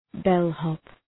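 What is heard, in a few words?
"bellhop", English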